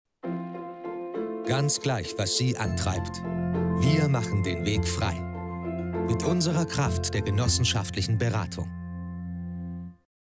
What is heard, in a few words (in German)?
Werbung Volksbanken Raiffeisenbanken